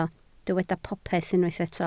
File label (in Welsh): "popeth", Welsh